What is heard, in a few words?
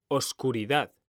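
"oscuridad", Spanish; mekanta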